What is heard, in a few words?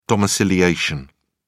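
"domiciliation", British English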